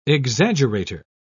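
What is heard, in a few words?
"exaggerator", British English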